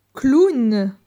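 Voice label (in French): "Clown", French; phonetique; les voyelles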